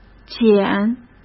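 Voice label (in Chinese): "减", Mandarin Chinese; hsk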